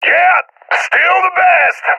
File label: cheer; Counter Strike Model Pack; CS:GO